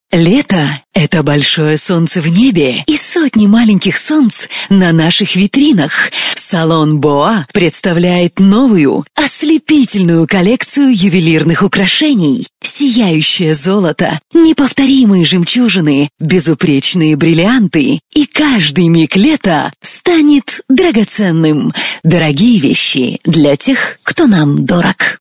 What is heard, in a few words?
Rus